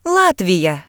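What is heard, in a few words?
"latvia", Ukrainian; gcompris; geography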